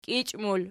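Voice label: "kiichi" plural, Archi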